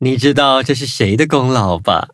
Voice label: victory, zh-hant; Cookie Run

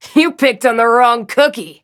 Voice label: victory en; Cookie Run

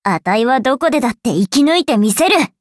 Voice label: upgrade, ja; cookierun

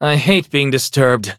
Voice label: battle en

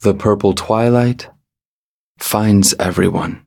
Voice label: touch, en; cookie run

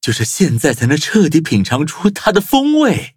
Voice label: levelup zh-hant; Cookie Run

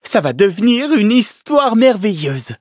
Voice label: victory, fr; cookierun

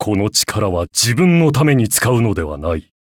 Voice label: levelup, ja; Cookie Run

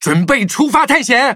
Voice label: levelup, zh-hant; Cookie Run

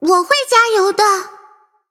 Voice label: loading zh-hant; cookie run

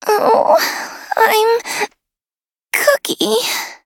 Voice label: touch, en; Cookie Run

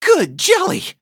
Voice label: hit, en; Cookie Run

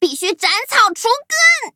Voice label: loading, zh-hant; cookie run

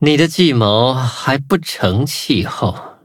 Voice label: loading, zh-hant; cookierunwiki

